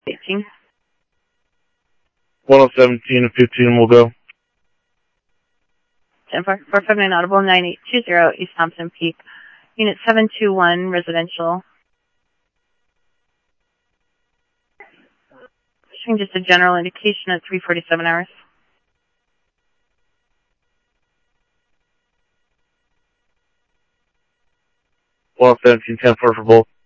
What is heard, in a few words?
PD Police, scanner audio; ThompsonPeakHigh; SCTSDLE PD - PD2 ScottsdalePolice